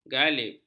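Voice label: "ghalib", Malay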